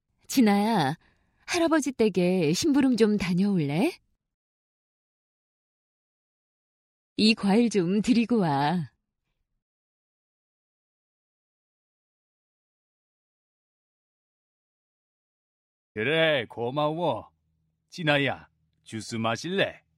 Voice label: korean